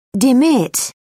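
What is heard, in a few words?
"demit", British English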